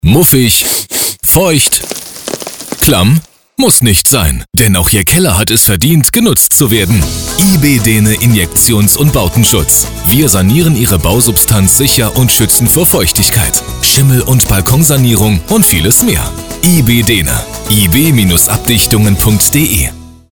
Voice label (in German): Radio Spot; Dehne